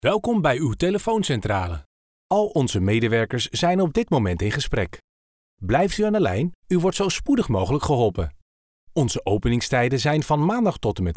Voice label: IVR